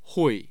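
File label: "hui", Mandarin Chinese, tone 4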